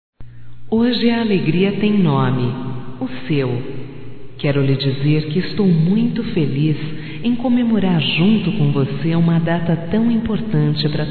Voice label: ANIV IRMA, fem; Aniversarios; Telemensagens; Telemensagens 2017

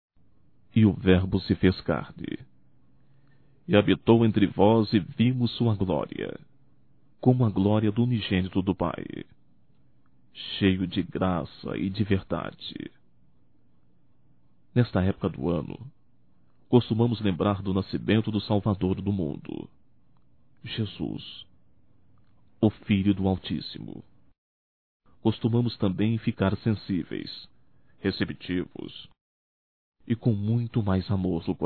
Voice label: Amigo Masculino, Natal; Natal e ano novo; Telemensagens 2017

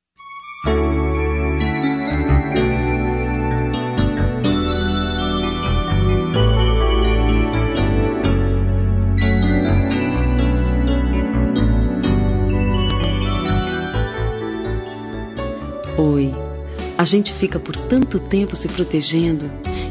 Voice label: ROM APAIXONADA FEM

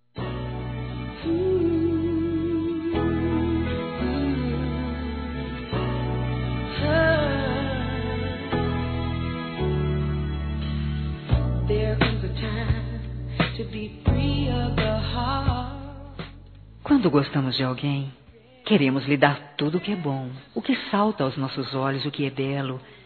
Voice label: Aniversário Esposo Feminino, Telemensagens; Aniversários; Aniv Familiar

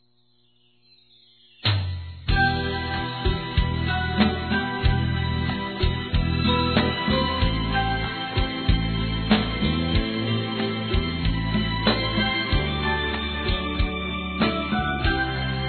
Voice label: Aniversário Irmão Evangelico Masculino, Telemensagens

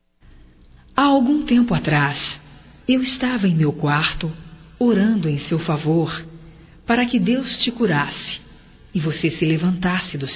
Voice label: Restabelecimento Feminino, Telemensagens

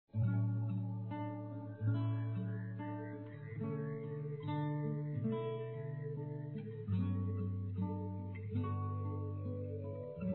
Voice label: DISTANTE (F), Telemensagens Dia dos Pais; Telemensagens 2017

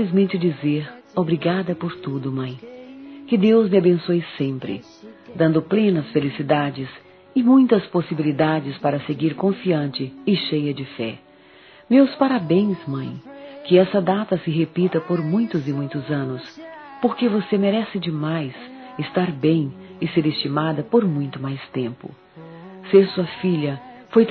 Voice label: Aniversário Mãe F; Telemensagens 2017; Aniversários; Aniv Familiar

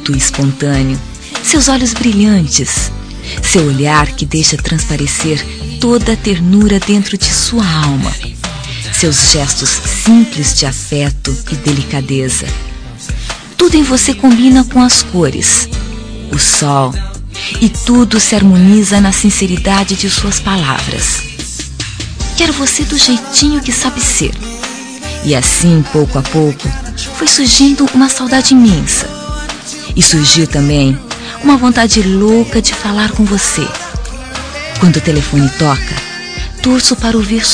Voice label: ROM CONQUISTA FEM; Telemensagens; Conquistas Cantadas paqueras; Telemensagens 2017

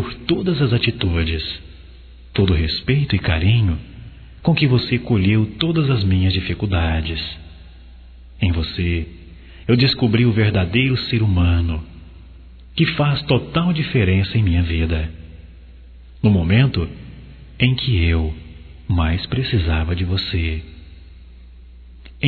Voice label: Agradecendo Ajuda Masculino, Só Voz; Telemensagens; Telemensagens 2017

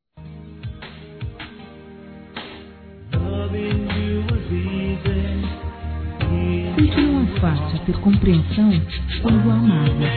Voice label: DESCULPAS ROMANT. F, Telemensagens; fem; Telemensagens 2017

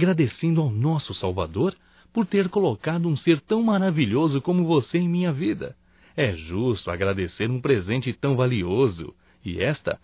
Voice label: NATAL IRMAO, masc; Natal e ano novo; Telemensagens 2017

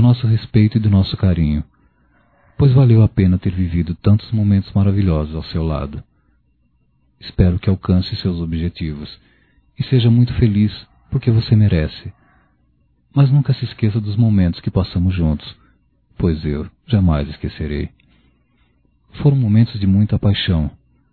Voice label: Saudade do Ex. Masculino, Só Voz; Telemensagens; Telemensagens 2017

